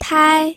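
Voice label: "tai1", Mandarin Chinese pinyin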